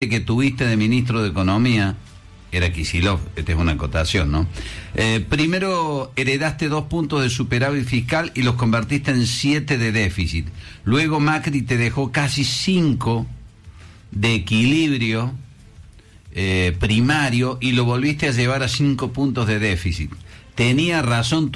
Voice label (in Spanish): Radio Incendio Forestal; Radio Mitre Cordoba